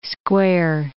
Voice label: "square", English